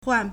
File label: "huàn", Chinese